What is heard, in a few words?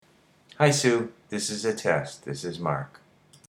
Male Basic